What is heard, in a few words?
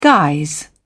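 "guise", British English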